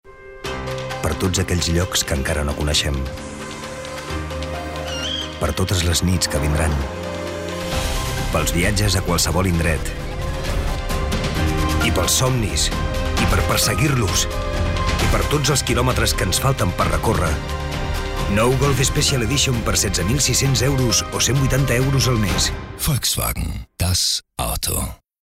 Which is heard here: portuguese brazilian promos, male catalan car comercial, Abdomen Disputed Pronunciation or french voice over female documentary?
male catalan car comercial